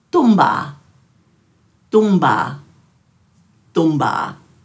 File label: "dhumbaa", Dhurga